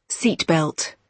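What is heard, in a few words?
"seat belt", British English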